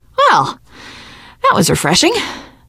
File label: hurrah, teen girl, survivor voice